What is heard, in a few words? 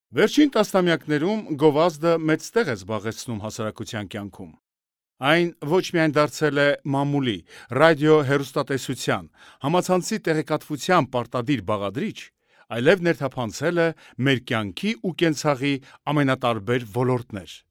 armenian voiceover locutor armenia